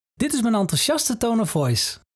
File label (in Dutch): Enthousiaste tone of voice